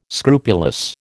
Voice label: "scrupulous", American

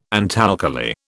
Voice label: "antalkali", British

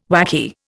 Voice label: "whacky", Indian

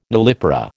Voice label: "nullipara", American

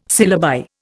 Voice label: "syllabi", Indian

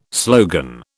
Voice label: "slogan", British

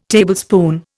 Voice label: "Tablespoon", Indian

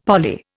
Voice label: "poly", Indian; Dictionary

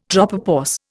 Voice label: "tropopause", Indian

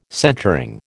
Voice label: "centring", American

